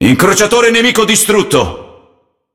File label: It Speech Destroy Enemy Cruiser; World Of Warships